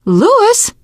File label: nice job, teengirl